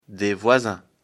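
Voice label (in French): "voisin", French; afrikalan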